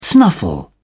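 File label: "snuffle", British English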